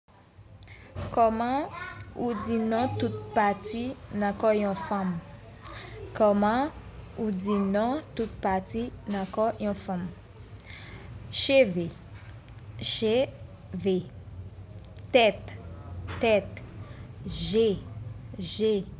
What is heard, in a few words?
body parts female, haitian creole